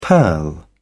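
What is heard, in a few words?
"purl", British English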